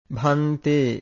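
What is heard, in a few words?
"bhante", Pali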